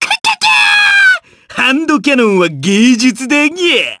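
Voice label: voice, Japanese; Lakrak; kingsraid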